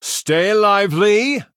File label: Male Human Attack; en